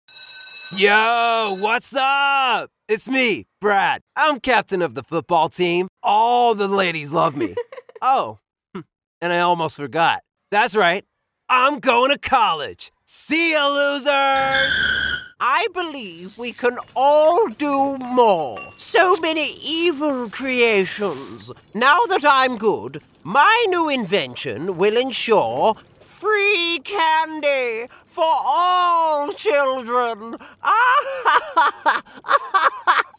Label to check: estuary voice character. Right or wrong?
Right